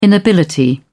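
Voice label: "inability", British English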